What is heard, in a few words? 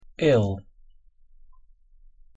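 "ill" English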